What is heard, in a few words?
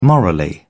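"morally", British English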